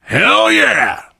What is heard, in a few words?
initial meeting, survivor voice biker